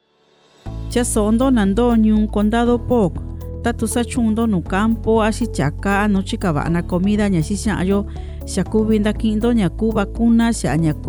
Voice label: Mixteco Bajo; Polk; Vaccine Radio Spots; covid19